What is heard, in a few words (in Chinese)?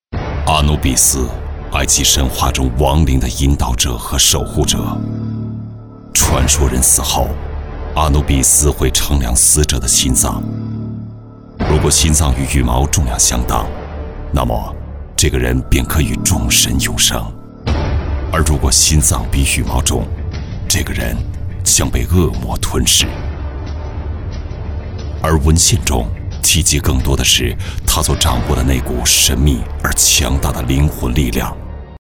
男, 游戏; 阿努比斯